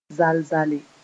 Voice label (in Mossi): "zal-zale", moore